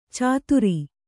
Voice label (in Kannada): "ಚಾತುರಿ", Kannada